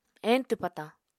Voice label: "eñtw patañ", Apatani